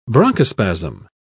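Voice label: "bronchospasm", British English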